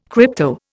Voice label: "crypto", Indian